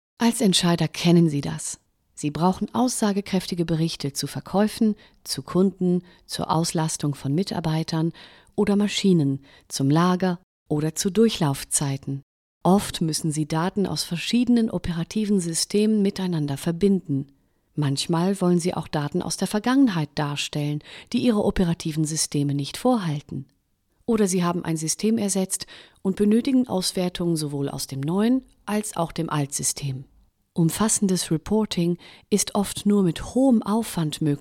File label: Explainer Video neutral DE